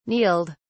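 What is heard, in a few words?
"kneeled", English, British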